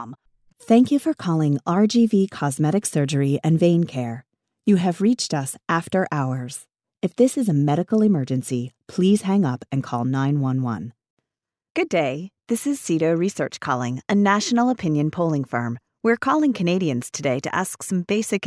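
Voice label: Telephony, English voice over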